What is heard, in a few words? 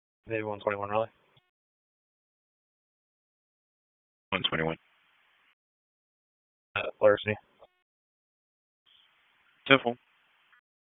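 Police Southwest District Dispatch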